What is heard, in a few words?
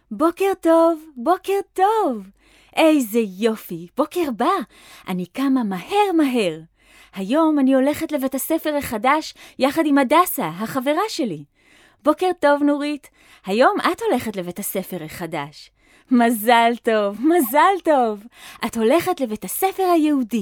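Female, Hebrew, drama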